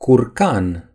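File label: "turkey", Romanian; gcompris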